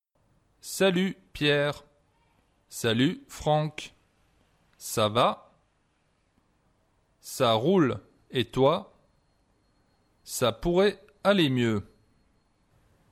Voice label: Conversation, French